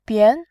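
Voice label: "bian", Mandarin Chinese, second tone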